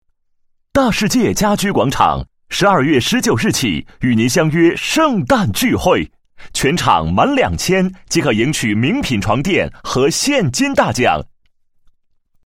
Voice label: 男 促销广告; 大世界家居广场

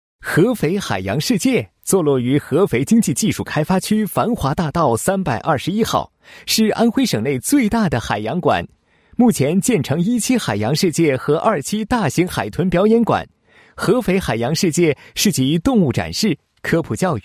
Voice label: 男 宣传广告, 海洋馆宣传介绍; 合肥海洋世界

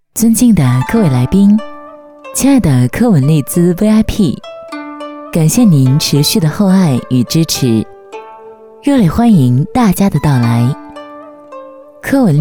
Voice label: 女 语音提示类 开场欢迎

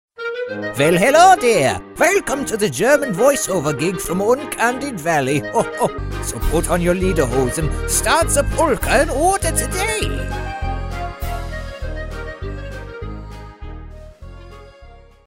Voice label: 外籍英男-狡猾的声音

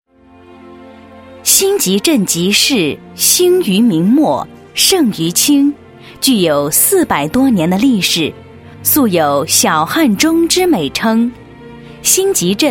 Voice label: 女 解说; 新集镇

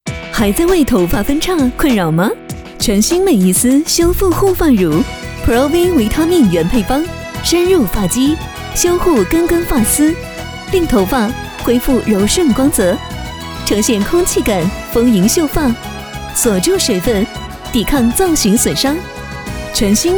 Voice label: 女 电视广告 护发 时尚青春

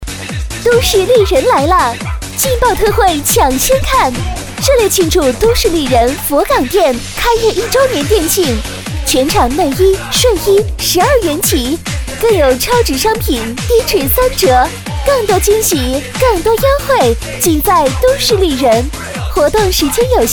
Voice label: 女 广告 激情促销, 国语女声配音; 都市丽人来了

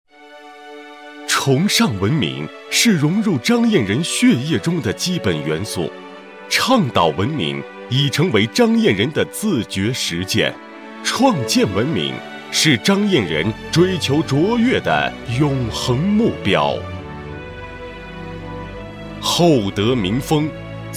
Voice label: 男声 年轻磁性 专题 大气; 某镇双创工作巡礼

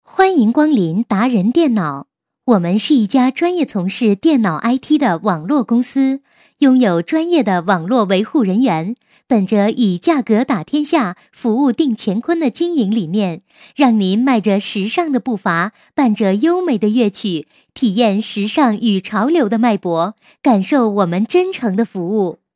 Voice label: 女 促销, 国语女声配音; 达人电脑

